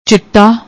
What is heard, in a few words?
"chitta", Punjabi